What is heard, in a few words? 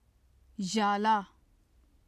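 "zhala", Urdu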